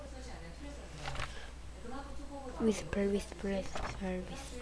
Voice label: whisper

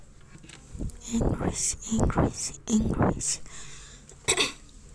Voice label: English

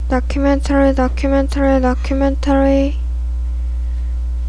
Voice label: documentary

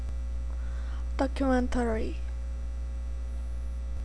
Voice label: documentary

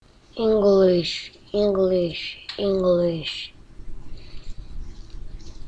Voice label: English

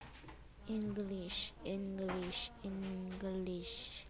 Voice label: English

